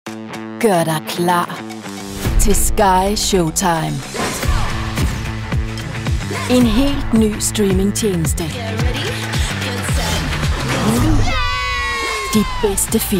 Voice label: danish movie trailers

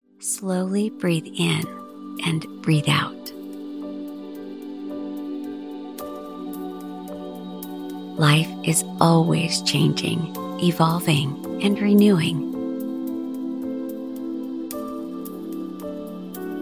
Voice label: english american

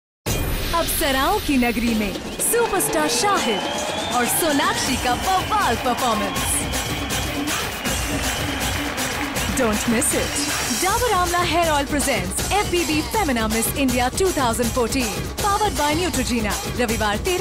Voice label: hindi promos, voice actors; professionelle indische Sprecherin

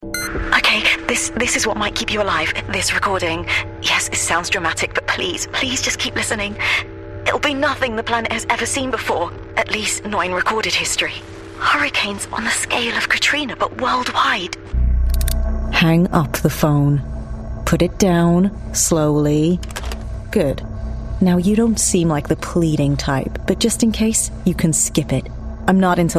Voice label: english british video games

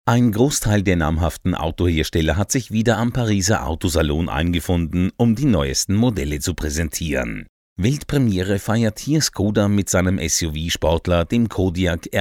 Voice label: german automotive

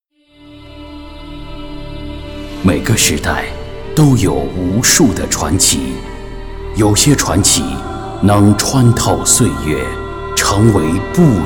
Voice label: Chinese Mandarin movie trailers

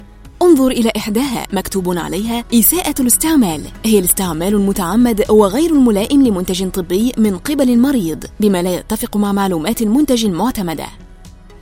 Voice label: arabic narration

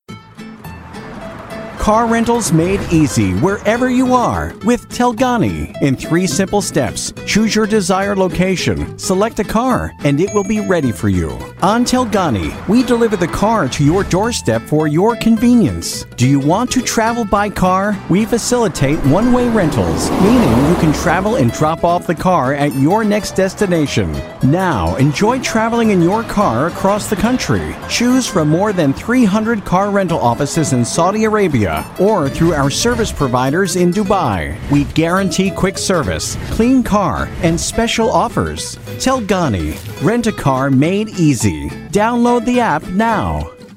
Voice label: english american automotive

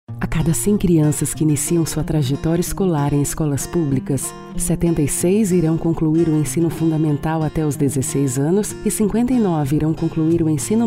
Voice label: portuguese brazilian corporate videos

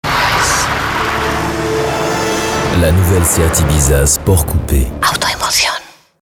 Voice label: french switzerland automotive; Swiss French; voice actor

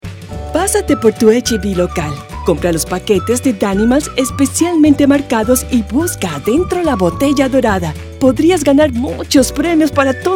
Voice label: spanish latin american commercial

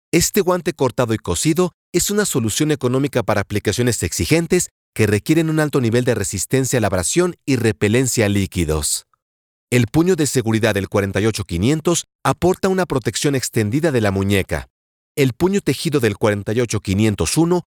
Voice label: spanish latin american explainer videos

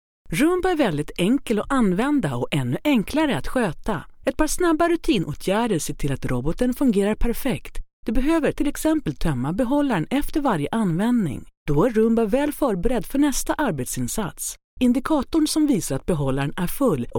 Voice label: Swedish promos

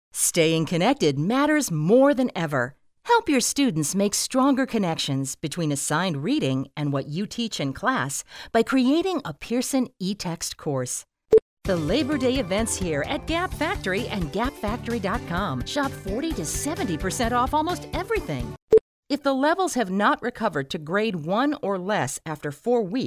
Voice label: English American others

